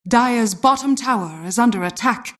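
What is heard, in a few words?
announcer tower attack dire bot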